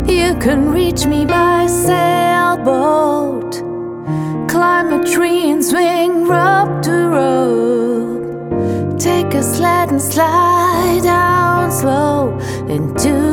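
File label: german, Female; professional German voiceover artist and voice actor; Video Games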